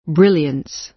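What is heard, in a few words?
"brilliance", American English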